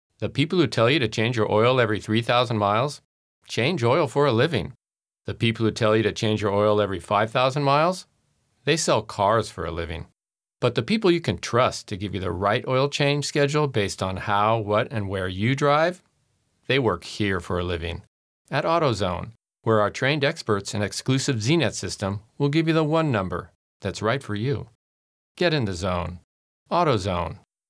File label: foreigner